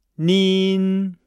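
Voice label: "nin", Chinese; Nihao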